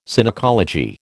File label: "Synecology", American